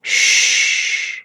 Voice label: Horse Calm Adult; Duviri